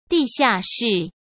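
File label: "地下室" Mandarin Chinese; hsk; chinese-flashcards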